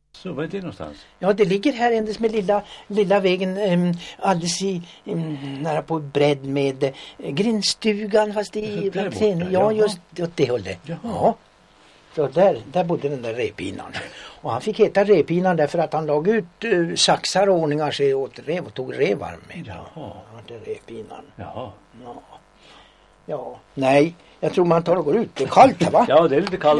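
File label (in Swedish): Balingsta Uppland, dialekter, Svenska sagor; Folkminnen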